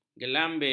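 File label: "gelambir", Malay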